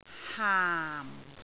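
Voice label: "haam4", Cantonese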